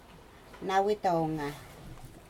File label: "machete", Aneityum